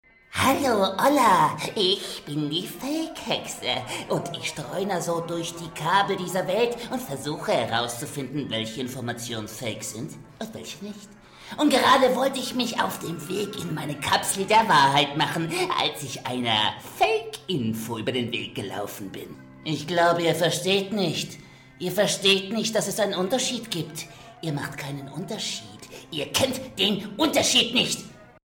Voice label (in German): hexe trickstimme